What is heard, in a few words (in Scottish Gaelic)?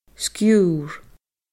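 "sgiorr", Scottish Gaelic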